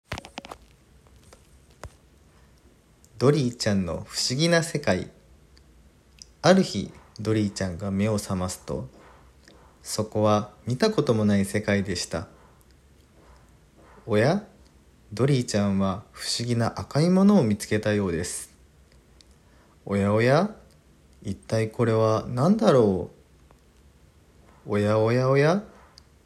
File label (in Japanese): 絵本サンプルボイス